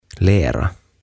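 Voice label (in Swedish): "lera", Swedish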